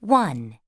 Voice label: english count 3; samsung YP-U5; Audio User Interface

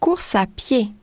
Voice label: french run; samsung YP-U5; Audio User Interface

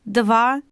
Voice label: Russian count 3; samsung YP-U5; Audio User Interface

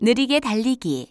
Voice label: Korean run slow; samsung YP-U5; Audio User Interface

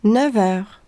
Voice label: french 9 hour; samsung YP-U5; Audio User Interface

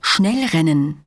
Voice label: german run fast; samsung YP-U5; Audio User Interface

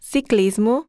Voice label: portuguese race cycle; samsung YP-U5; Audio User Interface

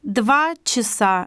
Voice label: russian 1 hour; samsung YP-U5; Audio User Interface

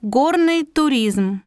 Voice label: Russian climbing; samsung YP-U5; Audio User Interface

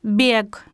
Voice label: "run", Russian; samsung YP-U5; Audio User Interface

